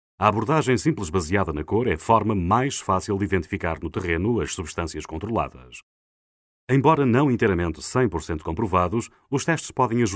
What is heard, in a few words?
EuroPortuguese E-learning